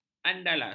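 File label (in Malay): "andalas", Malay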